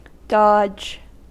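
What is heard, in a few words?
"dodge", American English; pronunciations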